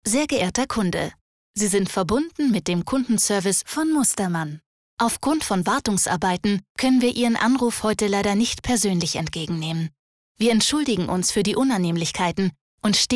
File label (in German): Telefonansage, German